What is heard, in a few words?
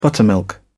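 "buttermilk", English, British English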